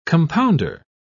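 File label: "compounder", English, British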